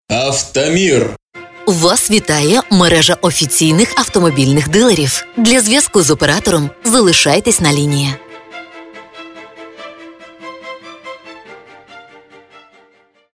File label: polish ivr